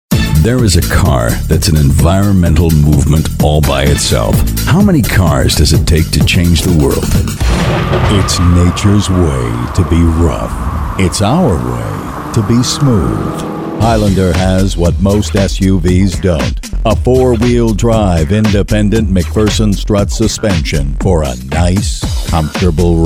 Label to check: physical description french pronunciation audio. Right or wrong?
Wrong